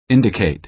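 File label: "indicate", American English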